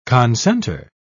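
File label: "concenter", British English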